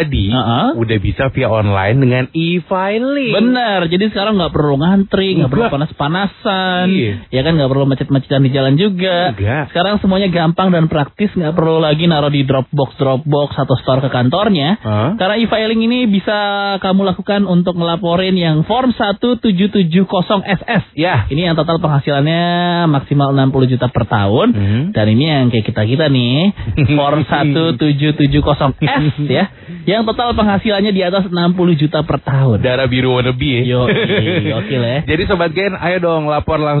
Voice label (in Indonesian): Iklan Radio Pajak Iklan Adlibs